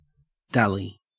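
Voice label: "dally", Australian English; pronunciations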